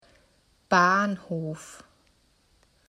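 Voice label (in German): "Bahnhof", German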